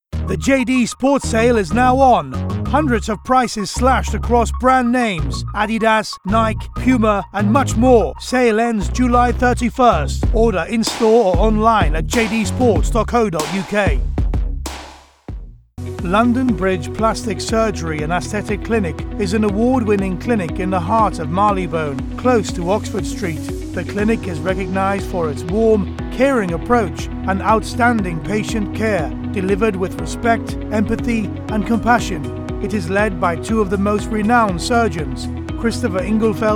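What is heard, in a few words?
British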